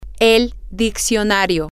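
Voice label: the dictionary, spanish, female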